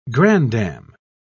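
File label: "grandam", English, British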